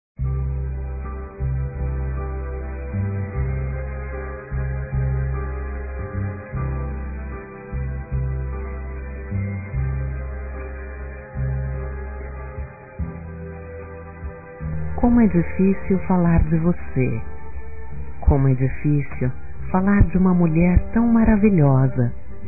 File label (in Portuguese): Dia das Mães Geral Feminino; Telemensagens 2017